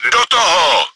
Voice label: start battle, cz; Do toho!; Czech; Давай! Поехали! Гоу!; Начало боя; World of Tanks